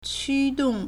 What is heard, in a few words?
"qu1 dong4", Chinese